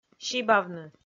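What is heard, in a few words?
"сибавны", Komi, female; kpv audio dict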